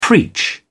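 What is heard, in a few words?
"preach", British English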